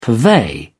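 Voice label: "purvey", British English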